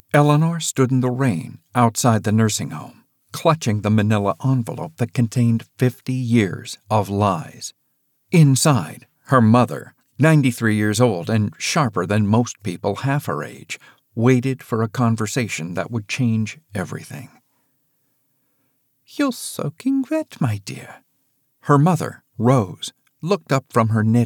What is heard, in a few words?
Historical Fiction German accents dialogue